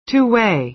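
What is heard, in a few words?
"two-way", American English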